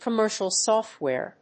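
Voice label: "commercial software", English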